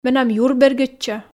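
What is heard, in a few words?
Komi, female; менам юр бергӧдчӧ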